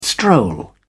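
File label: "stroll", British English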